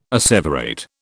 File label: "asseverate", British